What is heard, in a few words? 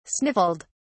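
"sniffled", British English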